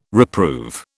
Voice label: "reprove", British

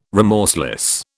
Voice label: "remorseless", British

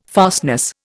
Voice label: "fastness", Indian

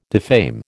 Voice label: "defame", American

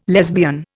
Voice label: "lesbian", Indian

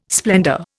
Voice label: "splendour", Indian

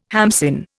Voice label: "hamsin", Indian

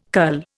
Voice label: "cull", Indian

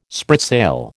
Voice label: "spritsail", American

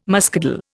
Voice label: "muscadel", Indian

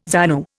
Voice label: "ZANU", Indian

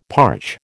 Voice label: "parch", American

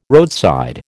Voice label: "roadside", American

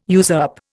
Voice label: "usurp", Indian

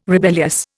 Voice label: "rebellious", Indian

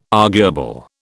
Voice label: "arguable", British

